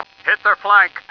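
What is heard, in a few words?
hit flank, male; stars; taunts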